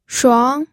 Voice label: "shuang2", pinyin